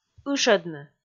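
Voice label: "ышӧдны", Komi, female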